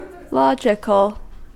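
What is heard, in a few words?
"logical", US English; pronunciations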